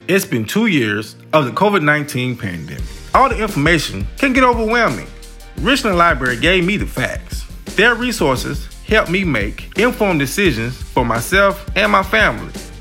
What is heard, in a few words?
Spotify AD; mixdown